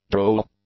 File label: "droll", English; adjectives